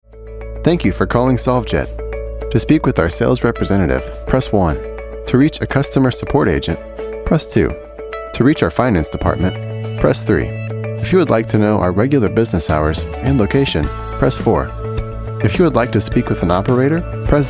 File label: On Hold Messaging